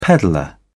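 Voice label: "peddler", English, British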